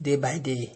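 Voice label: "day by day", Nigerian Pidgin, male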